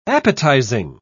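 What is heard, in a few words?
"appetising", British English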